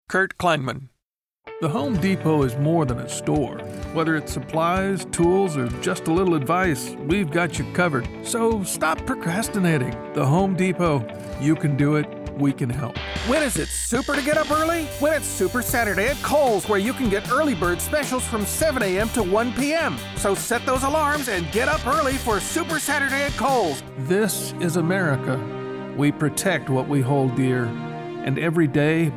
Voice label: Commercial